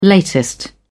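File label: "latest", British English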